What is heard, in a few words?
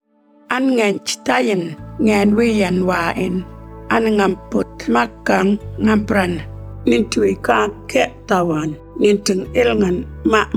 Cancer Australia Radio, Wik Mungkan